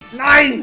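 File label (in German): "nein", German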